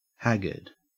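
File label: "haggard" Australian English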